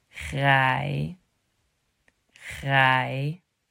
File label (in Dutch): "graai" "graai", vergelijkende woorden